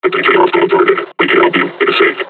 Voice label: taunt, combine charger; Half Life Alyx

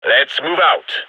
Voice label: radio lock n load; gign

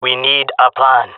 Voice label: request plan, jungle male; agents

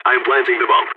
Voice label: planting bomb, balkan; vo

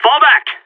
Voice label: radio bot fall back; Source Model Packs; Counter Strike Model Pack; CS2; seal

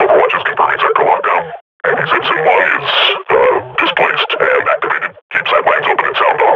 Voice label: idle, grunt

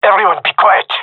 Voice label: request quiet, male; vo

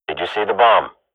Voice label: radio bot query bomb, swat; Counter Strike Model Pack; CS:GO

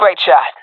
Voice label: sees enemy killed, swat agents; Source Model Packs; Counter Strike Model Pack; CS2; swat epic

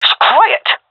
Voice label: request quiet, seal fem; vo; Counter Strike Model Pack; CS:GO

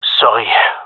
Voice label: sorry, gendarmerie male

